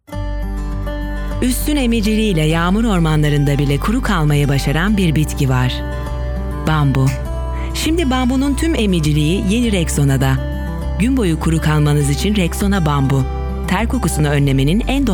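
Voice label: TUR, Turkish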